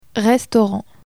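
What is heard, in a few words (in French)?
"restaurant", French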